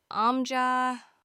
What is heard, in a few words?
uncle in turkish